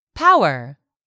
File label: "power", English; 3000 words